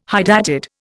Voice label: "hydatid", Indian

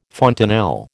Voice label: "fontanel", American

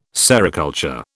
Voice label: "sericulture", British

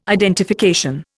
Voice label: "identification", Indian

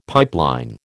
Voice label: "pipeline", American

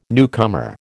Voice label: "newcomer", American

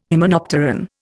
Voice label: "hymenopteran", Indian

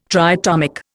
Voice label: "triatomic", Indian

